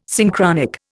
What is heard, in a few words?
"Synchronic", Indian